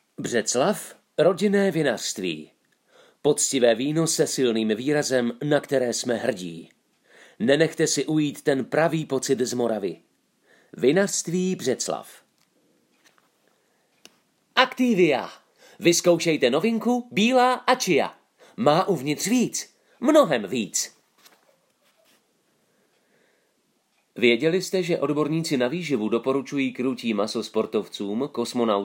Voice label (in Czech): ukázky hlasu CZ